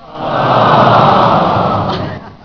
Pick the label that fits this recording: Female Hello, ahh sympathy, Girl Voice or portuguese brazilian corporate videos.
ahh sympathy